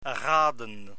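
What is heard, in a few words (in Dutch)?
"raden", Dutch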